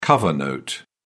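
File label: "cover note", British English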